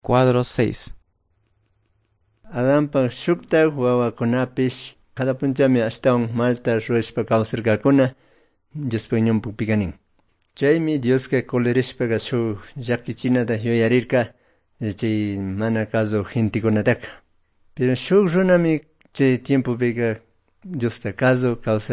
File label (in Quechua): good news quichua cayambe